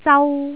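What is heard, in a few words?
"zau1", Cantonese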